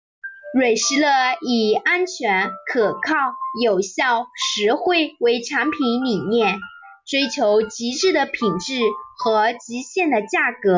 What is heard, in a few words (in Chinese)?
宣传片配音; 蕊诗乐